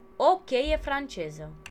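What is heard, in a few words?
wrench adjustable desc, Romanian; tuxpaint-stamps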